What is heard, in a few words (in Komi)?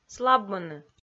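"слабмыны", Komi, female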